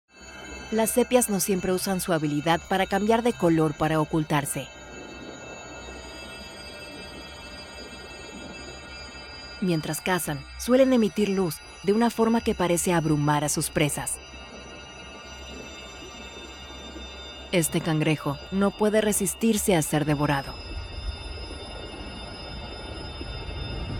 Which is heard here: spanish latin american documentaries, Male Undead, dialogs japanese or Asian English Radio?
spanish latin american documentaries